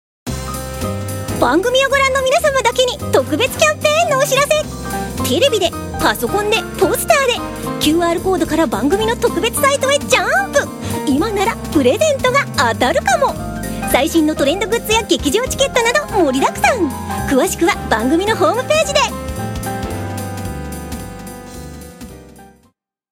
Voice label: character